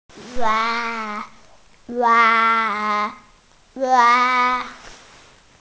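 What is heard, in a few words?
"wan2", Mandarin Chinese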